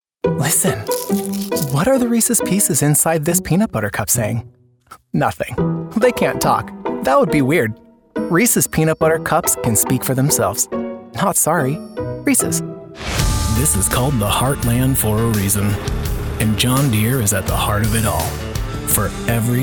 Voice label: commercial